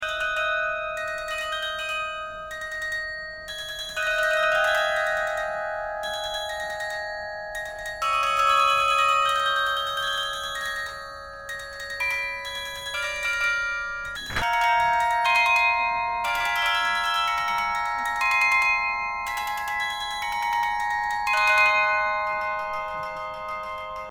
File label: Cute Phone Voice